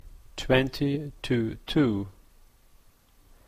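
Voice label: "twenty to two", Canadian English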